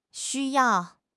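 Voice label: "xu1 yao4", Chinese; HSK3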